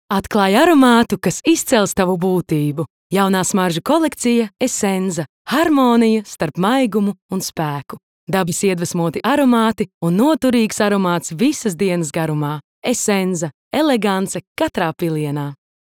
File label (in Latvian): Reklama LV